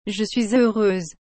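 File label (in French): "Je suis heureuse", French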